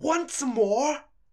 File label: hit, voices angry pursuit; DanisRace